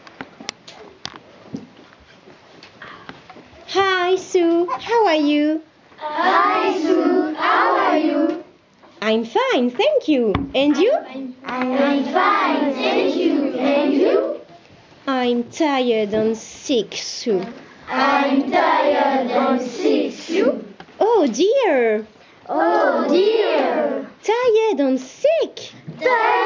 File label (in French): Dialogue en anglais